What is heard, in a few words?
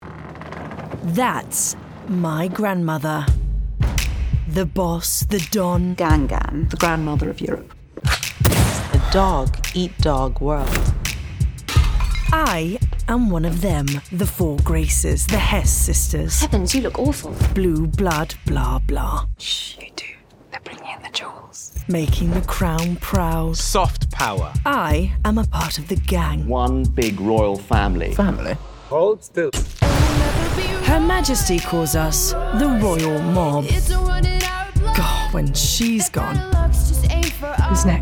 Commercial